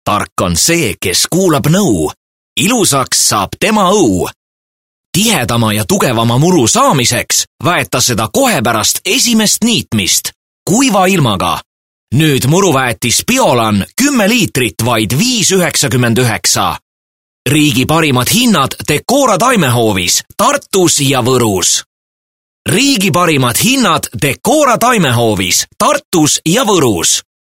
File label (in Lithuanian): EE, balsai estu vyras